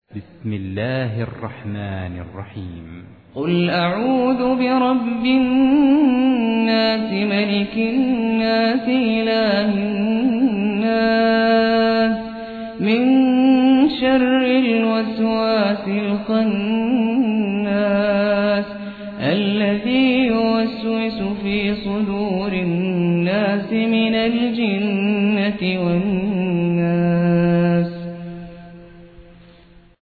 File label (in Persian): ترتیل; نبیل الرفاعی; سوره ناس